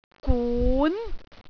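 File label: "gun2", Cantonese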